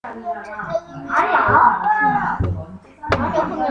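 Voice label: "jig", spoken word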